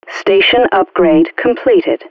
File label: vo station upgrade; allegiance